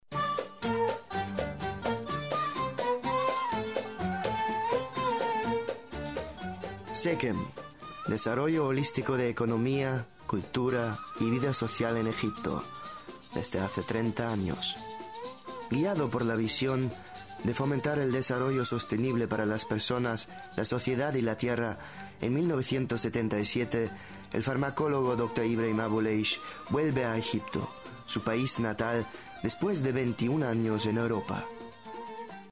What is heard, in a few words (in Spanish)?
espanol